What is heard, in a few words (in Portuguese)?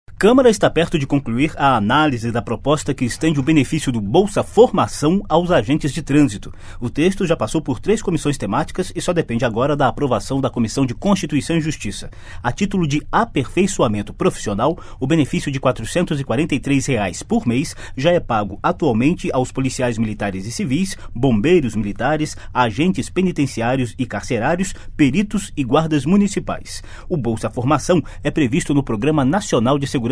radio camara